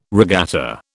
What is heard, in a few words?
"regatta", British